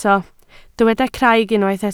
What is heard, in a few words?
"craig", Welsh